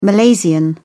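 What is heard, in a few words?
"malaysian", British English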